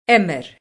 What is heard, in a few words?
"play", ulster; jetpackLearningPlatform; story-arranger